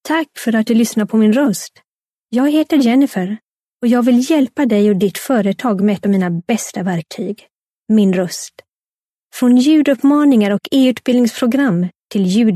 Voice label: Swedish F Narration